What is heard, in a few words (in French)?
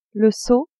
"seau", French; cuisine